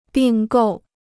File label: "并购", Chinese